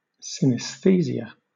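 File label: "synaesthesia", British English; pronunciation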